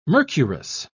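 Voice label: "mercurous", British English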